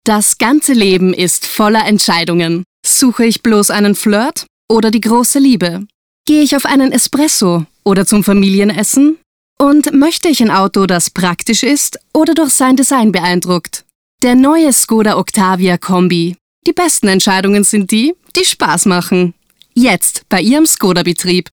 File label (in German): Werbung, speakers; škoda